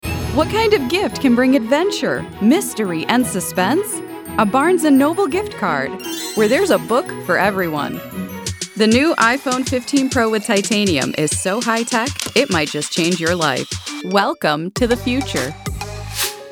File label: commercial